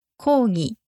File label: "kougi", Japanese; protest; objection